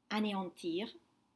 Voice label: "aneantir", French; destroy